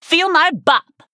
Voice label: Nonbinary Night Elf Attack

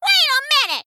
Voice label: Female Gnome Attack

